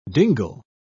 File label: "dingle", pronounce